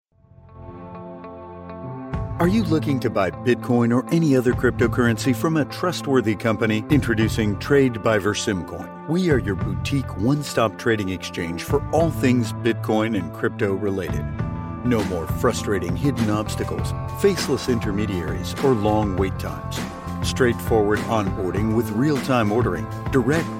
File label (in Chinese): 外籍男英 外语 美式英语 宣传片类 科技